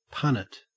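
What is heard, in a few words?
"punnet", Australian English; pronunciations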